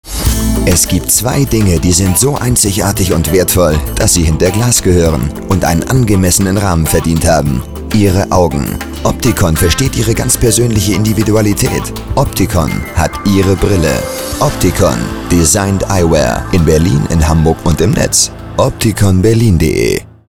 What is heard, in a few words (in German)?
Fashion Mode Radiowerbung